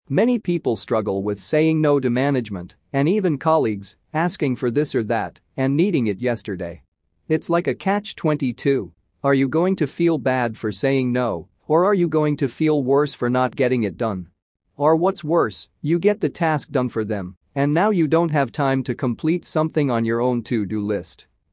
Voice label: Time Management Male Audio

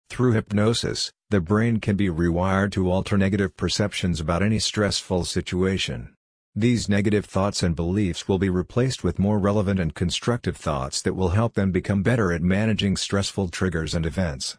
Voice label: hypnosis male

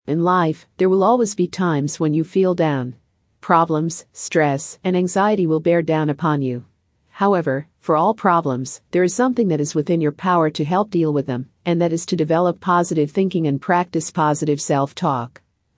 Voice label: Self Talk Female

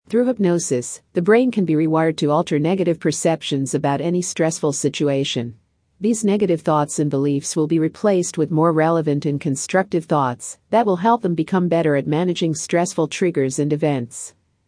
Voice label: hypnosis female

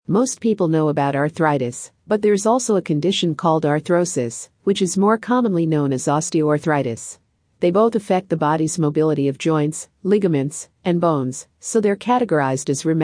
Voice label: Female Audio; Arthrosis

